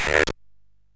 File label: MOS6581R3, Electronic Speech Systems